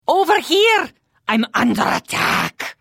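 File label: Flee, Khajiit female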